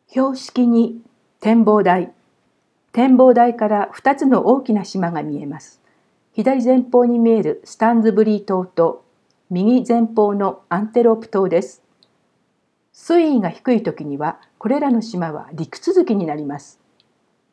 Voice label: Japanese